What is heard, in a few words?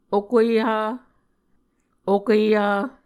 "okueha", Muscogee